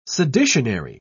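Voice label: "seditionary", British English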